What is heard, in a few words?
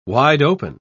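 "wide-open", British English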